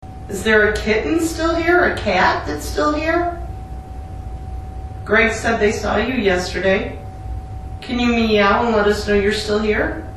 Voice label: male voice attic room; iron island